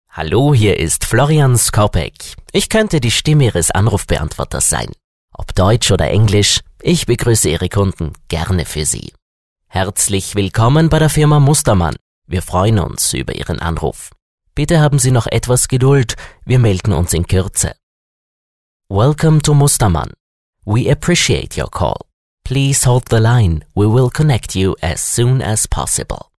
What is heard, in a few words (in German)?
Sprecher Telefonansagen